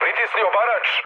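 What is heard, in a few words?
Serbian attack position mood med, speech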